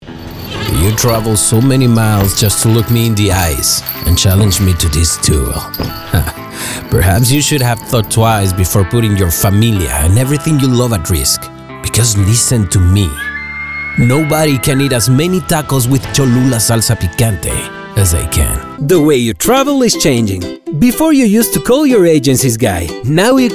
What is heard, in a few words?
spanish accented english; (I) Neutral Spanish Speaker. Bilingual with a warm, versatile, and natural tone. From corporate to conversational.